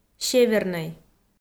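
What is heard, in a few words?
"севернӧй", Komi, female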